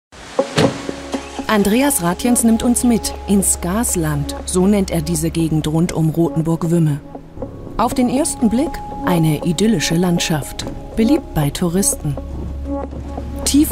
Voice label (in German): Doku; NDR; Markt; Fracking